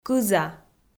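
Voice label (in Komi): "кыза", Komi, female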